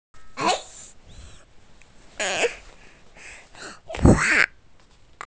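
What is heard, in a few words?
"hua1", Mandarin Chinese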